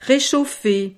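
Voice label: "rechauffer", French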